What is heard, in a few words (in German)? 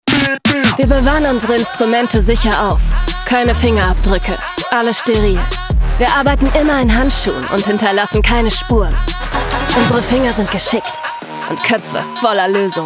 Imagefilm; Bis An Die Zaehne Mit Wissen Bewaffnet; LOFT VOICES